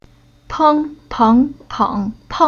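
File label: "Peng", Chinese syllable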